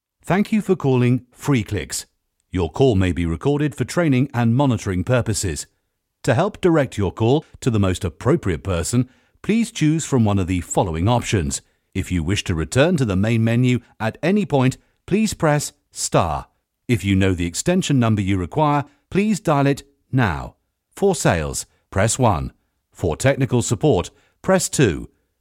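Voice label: ivr, male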